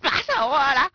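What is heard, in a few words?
female wow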